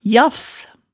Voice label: "jafs", hemsedalsmaalet

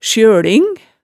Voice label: "sjøling", hemsedalsmaalet, tale

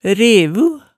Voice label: "revu", Hemsedal

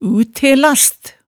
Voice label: "utelas", hemsedalsmaalet tale

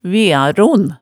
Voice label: "vearon", hemsedalsmaalet tale

